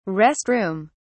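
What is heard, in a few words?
"rest room", British English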